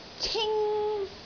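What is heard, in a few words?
"cing1", Cantonese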